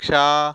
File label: "ksha", consonant